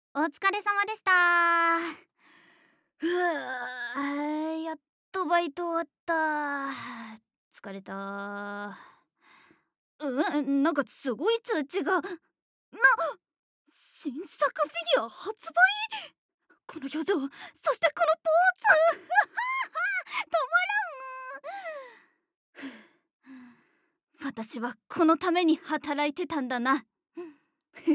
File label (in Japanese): オタクな女子高校生